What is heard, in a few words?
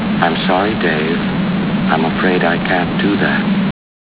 raw 3 bit